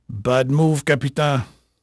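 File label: French male, voice; Pirates Of The Caribbean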